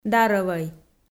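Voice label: "дарӧвӧй", Komi, female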